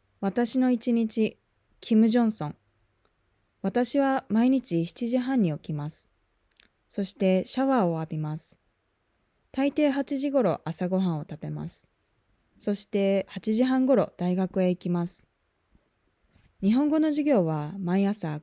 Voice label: Reading natural; OJAD